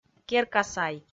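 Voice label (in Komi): "керка сай", Komi, female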